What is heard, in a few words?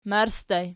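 "marssi" ergative plural, Archi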